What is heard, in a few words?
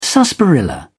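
"sarsaparilla", British English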